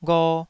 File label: "gho2", Taiwanese syllable